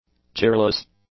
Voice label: "cheerless", English; adjectives